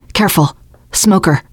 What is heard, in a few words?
heard smoker, teen girl; player; survivor; voice